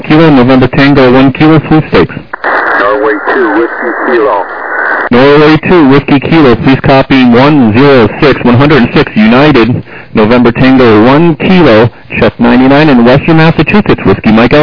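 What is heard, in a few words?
lower sideband 7MHz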